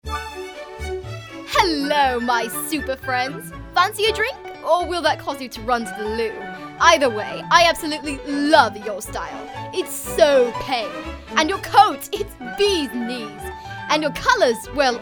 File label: British